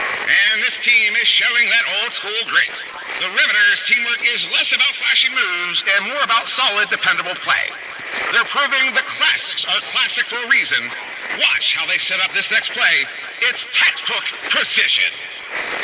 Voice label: Transmission Echoes